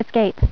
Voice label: "Escape", Voice Female; TalkingKeys

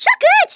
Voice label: "amazing", Brazil Portugal

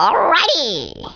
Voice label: "Brilliant", Danish

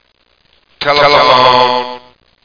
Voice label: announce; tel id2

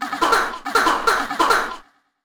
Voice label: VOICEFX TEKNO 140, Techno, Voice; eJay Sound Collection 2

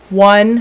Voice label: 5 female; numbers

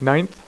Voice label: "NINTH", poker; iPoker 2000

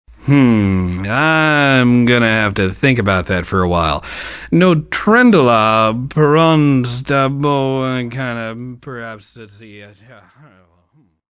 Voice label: Male Maybe Alliance; Survivor The Interactive Game

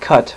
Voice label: cut male; voice; Web Clip Empire 150,000

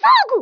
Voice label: Fire, Speech, BrazilPortugal

